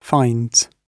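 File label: "finds", British English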